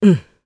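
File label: Vox Aff Japanese; Morrah; kingsraid; jp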